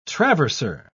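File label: "traverser", British English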